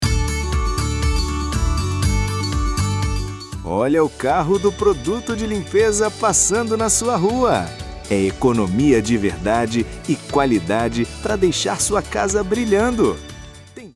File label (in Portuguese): Vinheta Comercial Profissional em Audio Carro do Produto de Limpeza